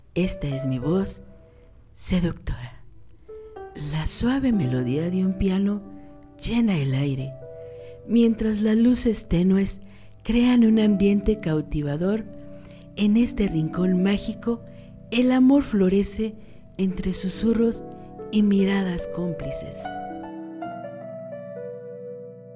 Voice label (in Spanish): Seductora Musicalizado, locutora